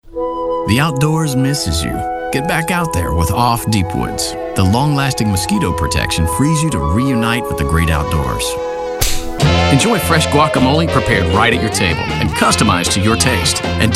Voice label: commercial

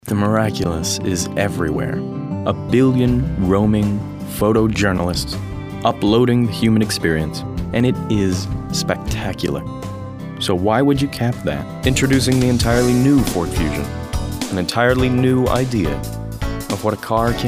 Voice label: Commercial

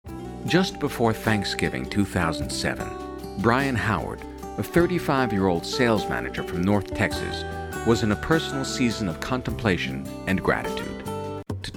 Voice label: Narr

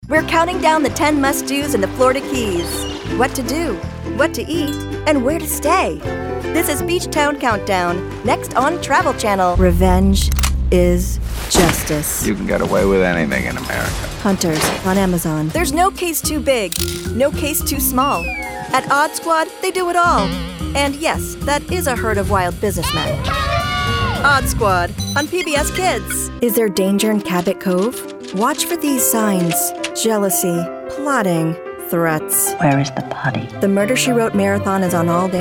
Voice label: Promo

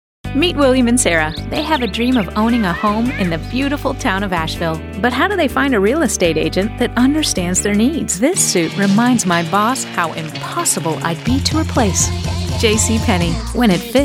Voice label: COMMERCIAL

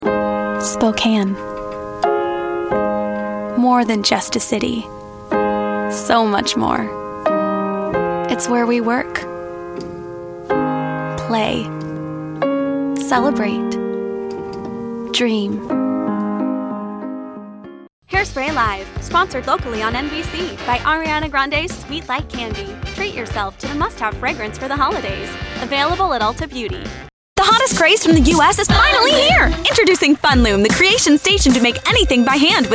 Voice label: Commercial

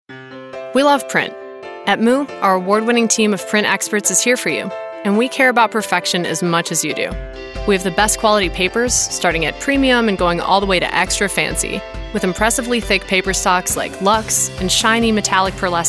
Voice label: Corporate

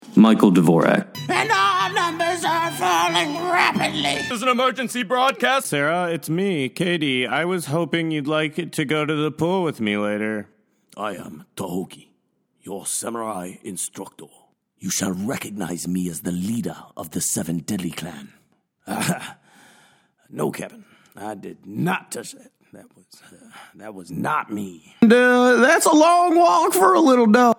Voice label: Animation

